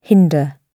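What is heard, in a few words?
"hinder", British English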